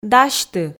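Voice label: "дасьты", Komi, female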